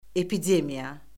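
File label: "epidemiya", Azerbaijani; Orfoqrafiya Lüğəti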